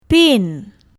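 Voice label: "pin", Malay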